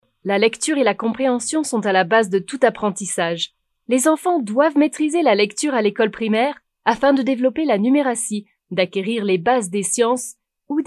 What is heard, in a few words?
french documentaries